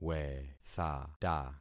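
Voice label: "wethata", concatenated syllables; Affixing experiment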